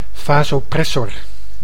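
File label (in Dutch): "vasopressor", Dutch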